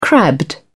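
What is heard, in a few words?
"crabbed", British English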